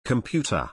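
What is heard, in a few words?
"computer", English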